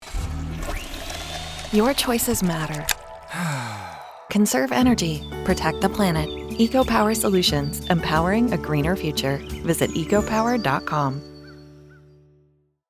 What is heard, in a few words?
Radio